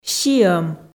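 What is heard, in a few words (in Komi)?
"сиӧм", Komi, female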